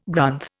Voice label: "Grunth", Indian